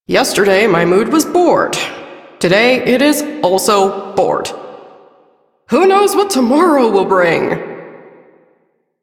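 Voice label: Female Sedentary; Warframe; Duviri; Duviri Ambient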